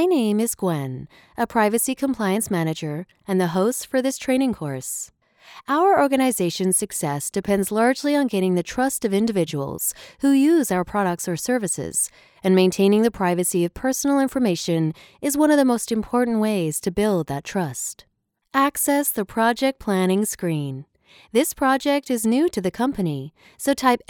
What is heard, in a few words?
E-Learning US